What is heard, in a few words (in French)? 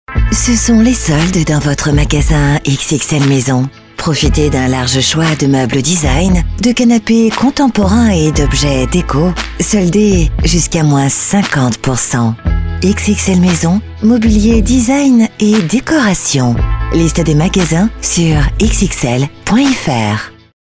sensuel, Pub Ton SeNSUEL, voix off